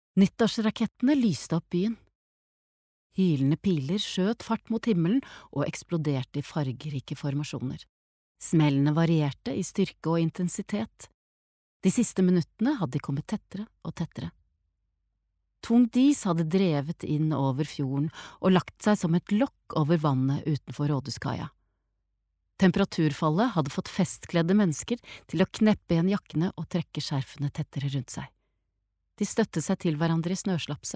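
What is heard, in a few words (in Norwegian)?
lydbok, Norwegian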